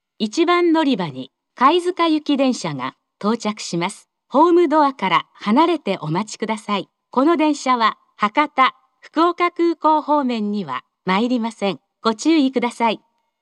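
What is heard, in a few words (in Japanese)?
接近-貝塚行（西鉄貝塚線最終接続）, 1番のりば放送; 福岡市地下鉄 空港線・箱崎線 中洲川端駅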